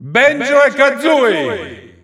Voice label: Italian Announcer; Banjo & Kazooie; SSBU

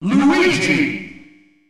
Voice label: Announcer; Luigi; SSB

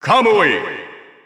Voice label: Japanese Announcer; Corrin; SSB4-SSBU

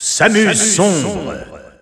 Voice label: French Alt Announcer; Dark Samus; SSBU

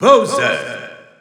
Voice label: French EU Announcer; SSBU

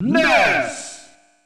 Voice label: Announcer; Ness; SSB

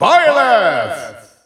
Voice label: Dutch Announcer; Byleth; SSBU

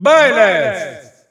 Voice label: Italian Announcer; Byleth; SSBU

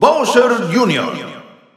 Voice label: Spanish Announcer; Bowser Jr.; SSBU

